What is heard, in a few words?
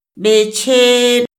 "b'eche", Mazahua; INALI; AprendeMazahua